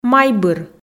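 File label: "майбыр", Komi, female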